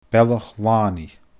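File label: "Bealach Lànaidh", Scottish Gaelic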